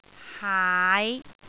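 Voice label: "haai5", Cantonese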